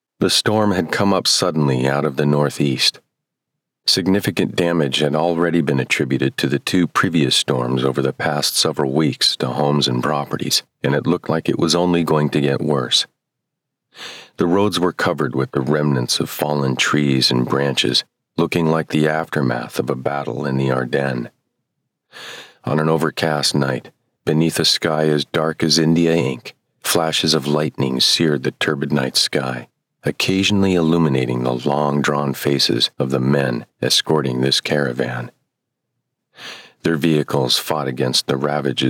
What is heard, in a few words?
3rd Person Mystery Thriller; Fallen Angel